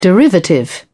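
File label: "derivative", English, British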